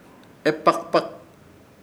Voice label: "Epakpak", Ishir Chamacoco; calmado